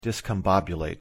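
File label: "discombobulate", English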